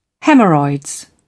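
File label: "hemorrhoids", British English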